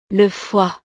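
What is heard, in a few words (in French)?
"le foie", French; El hígado; partes del cuerpo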